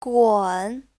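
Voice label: "gun3", Chinese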